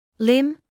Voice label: "limb", medical English